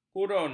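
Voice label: "kurun", Malay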